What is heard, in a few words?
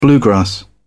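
"bluegrass", British English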